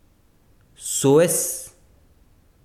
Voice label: besiro palo, chiquitano